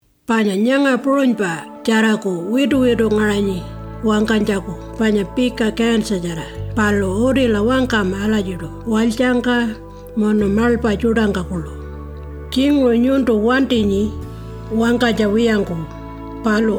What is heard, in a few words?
Pitjantjatjara; Yarn-for-Life